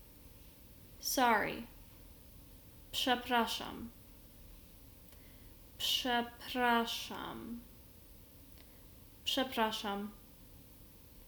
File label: Pol sorry